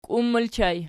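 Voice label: "kiun" ergative plural, Archi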